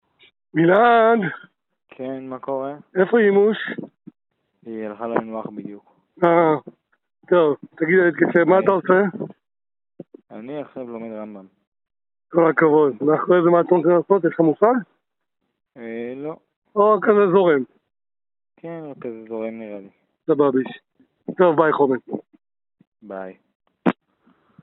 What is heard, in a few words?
Call recording